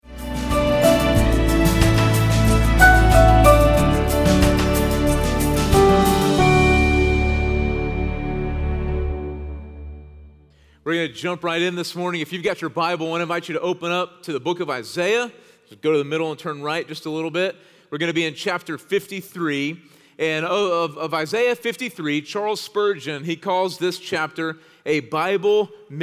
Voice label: Sermon English